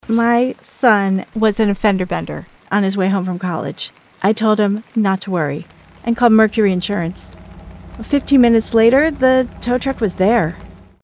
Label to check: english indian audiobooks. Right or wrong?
Wrong